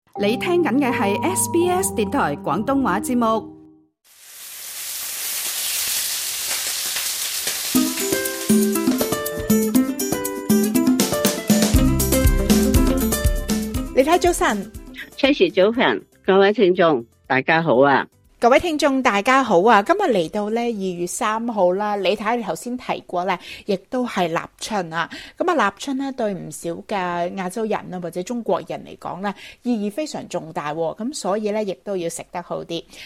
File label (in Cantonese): cantonese; 【生生猛猛】龍皇海鮮湯; 美食速遞; SBS Chinese